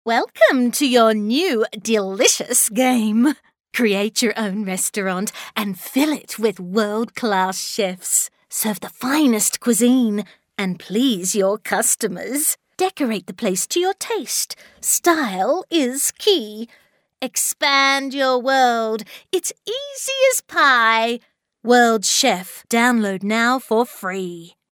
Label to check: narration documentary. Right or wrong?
Wrong